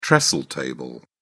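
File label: "trestle table", British English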